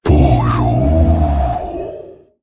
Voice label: Male Dragon Thinking; ▶不如…… ▶What about...; zh; 思考3 Thinking3; 风暴巨龙迦拉克隆

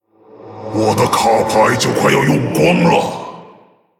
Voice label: Male Orc, I'm almost out of cards!; ▶我的卡牌就快要用光了！; zh; 卡牌快抽光; 死亡猎手雷克萨; Rexxar Deathknight

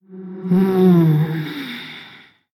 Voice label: Female BloodElf Thinking; ▶嗯…… ▶Hmmm...; 虚空之影瓦莉拉; 思考1; Thinking1

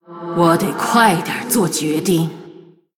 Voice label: Female BloodElf Time; Running out of time; 虚空之影瓦莉拉

